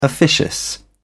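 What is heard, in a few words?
"officious", British English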